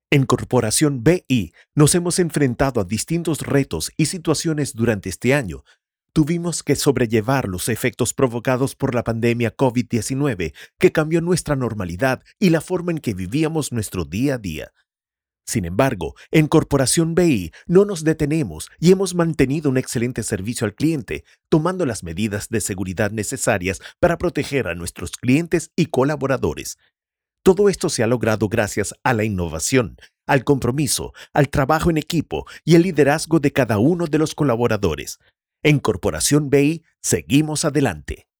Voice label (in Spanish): Corporacion Bi SP